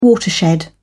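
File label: "watershed", British English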